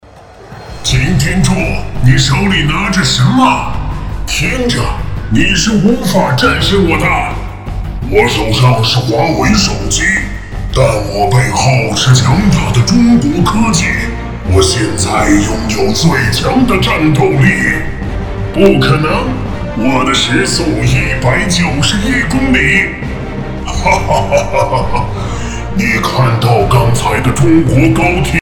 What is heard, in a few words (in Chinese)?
男 模仿 动画片; 变形金刚